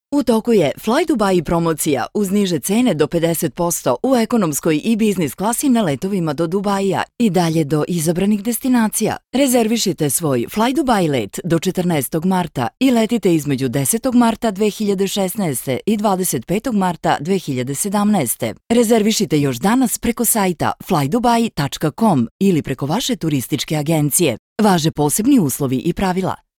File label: rs commercial